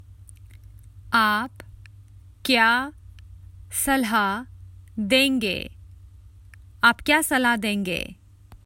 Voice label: Common Hindi Phrases for travel What do you recommend